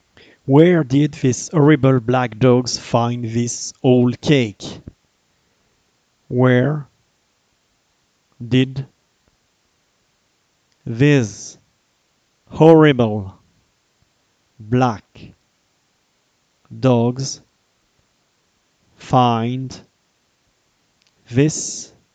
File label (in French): dictee bilingue homophones grammaticaux; orthographe